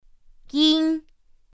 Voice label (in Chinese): "yīn", pinyin; pinyin2; pinyinji